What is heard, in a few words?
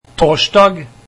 "Thursday", Norwegian